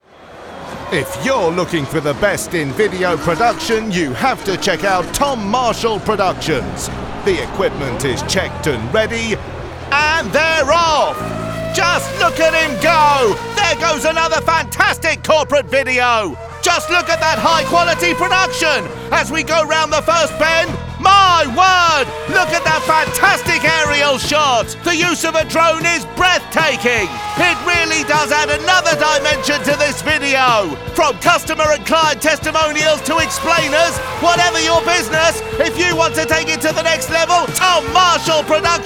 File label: Murray Walker impression voiceover